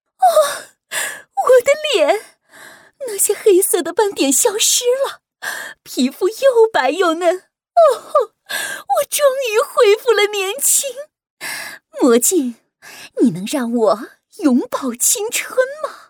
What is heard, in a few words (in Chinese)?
女 角色 年轻继母